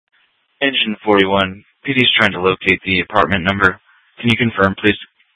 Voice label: PHX Fire, Scottsdale, Simulcast; Regional Wireless Cooperative (RWC); K8 - FDCOM 8 - South